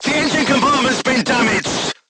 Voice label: voice message engine damaged, vietnamese